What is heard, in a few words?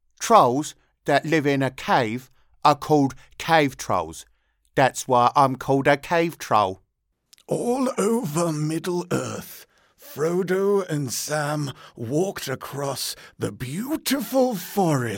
Acting Voices